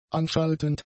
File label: "anschaltend", German; adjectives